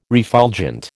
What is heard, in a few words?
"refulgent", American